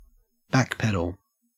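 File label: "backpedal" Australian English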